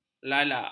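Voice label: "lalak", Malay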